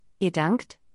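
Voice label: pronunciation ihr dankt, German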